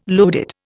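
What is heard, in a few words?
"loaded", Indian English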